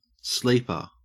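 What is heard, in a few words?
"sleeper" English, Australian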